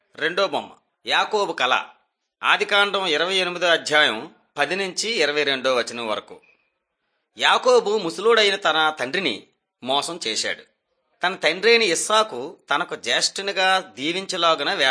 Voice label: telugu bangladesh; mighty men of god

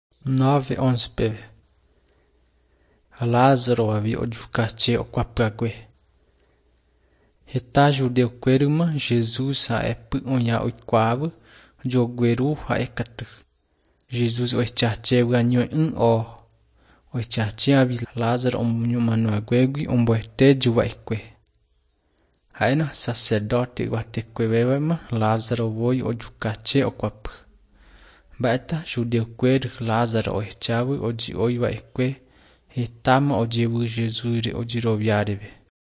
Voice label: john guarani mbya, bible

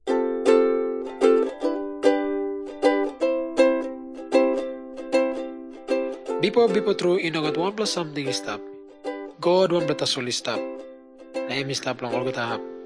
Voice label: tok pisin; png nt drama; can god forgive me

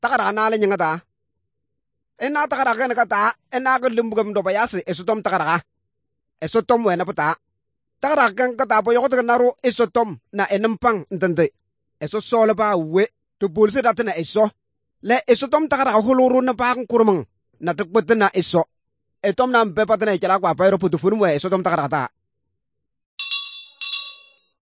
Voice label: good news, kabiye bible

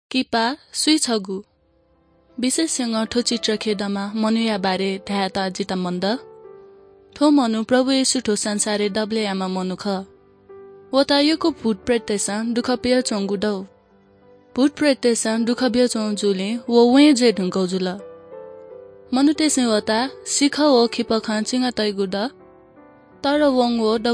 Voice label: good news newari bhaktapur

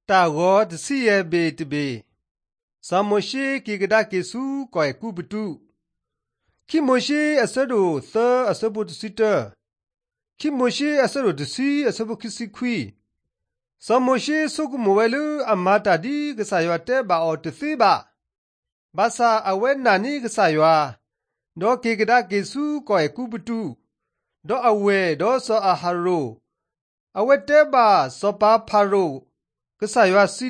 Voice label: drama, karen sgaw; mighty men of god; bible

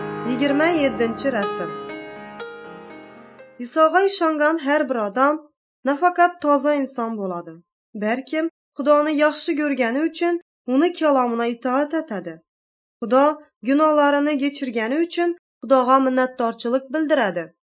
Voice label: uzbek northern kipchak; good news

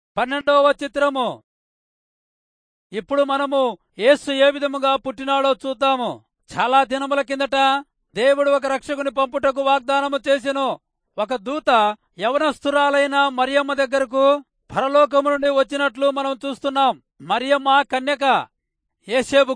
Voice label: telugu rayalseema; good news

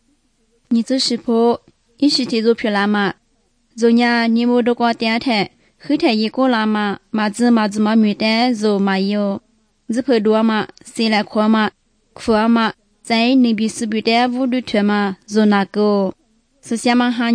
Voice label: good news lisu southern, drama

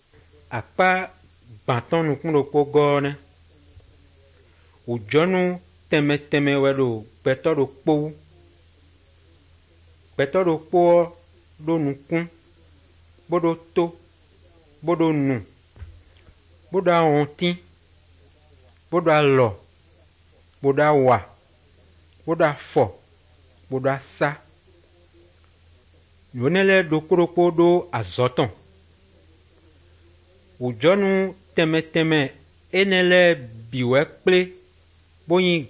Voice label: good news, fon fongbe bible drama

